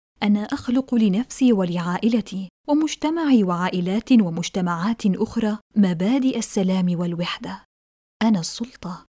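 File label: I Am Power, Arabic Female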